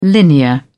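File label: "linear", British English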